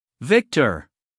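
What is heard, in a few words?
"Victor", US English, male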